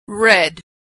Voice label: verb "read" past, pronunciation